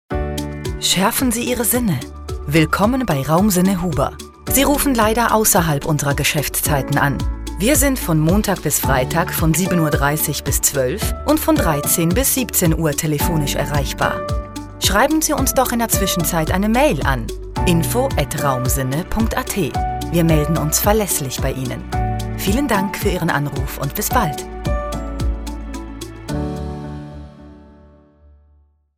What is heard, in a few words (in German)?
Nachtansage; Raumsinne Huber; telefonansagen sonstige